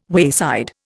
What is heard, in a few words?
"wayside", Indian